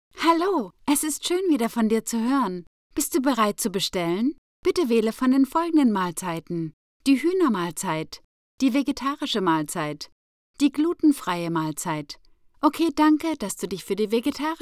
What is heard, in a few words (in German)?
voice assistant, DE